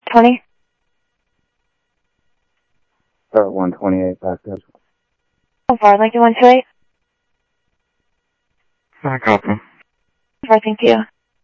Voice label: Sheriff, scanner audio